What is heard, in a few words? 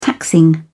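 "taxing", British English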